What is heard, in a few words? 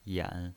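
"yan" Mandarin Chinese pinyin voice, third tone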